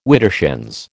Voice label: "widdershins", American